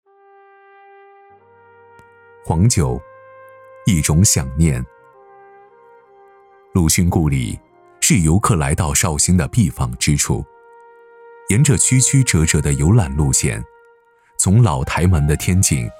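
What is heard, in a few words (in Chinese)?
男【专题片】; 黄酒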